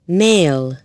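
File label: "male", French; FRANCAIS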